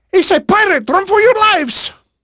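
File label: Portuguese male, voice; Pirates Of The Caribbean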